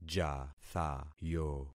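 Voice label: "jathayo", concatenated syllables; Affixing experiment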